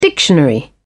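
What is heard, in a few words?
"dictionary", British English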